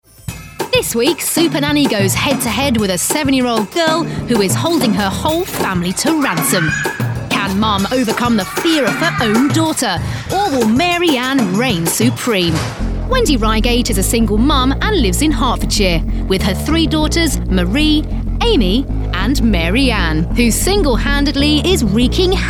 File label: narration